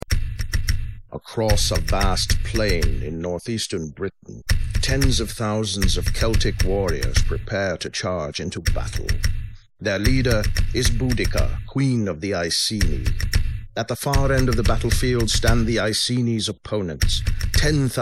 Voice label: Men Narration